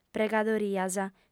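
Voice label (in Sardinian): "pregadorias", female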